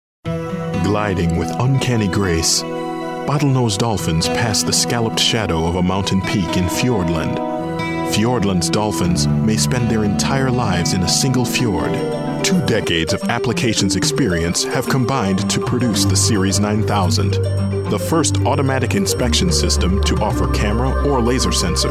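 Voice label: Narration, Voiceover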